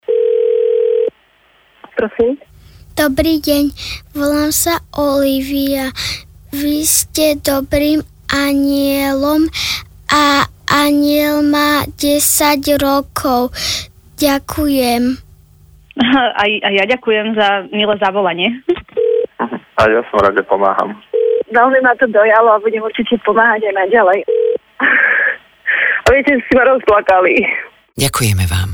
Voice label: radio